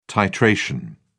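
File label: "titration", British English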